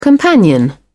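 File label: "companion", British English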